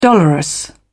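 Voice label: "dolorous", British English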